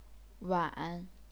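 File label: "wan", chinese pinyin, third tone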